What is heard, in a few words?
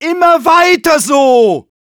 cheers, de